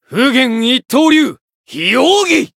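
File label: Voice limit break, Japanese; shigure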